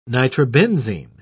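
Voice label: "nitrobenzene", British English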